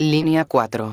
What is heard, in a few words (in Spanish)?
linea 1, megafonias; exterior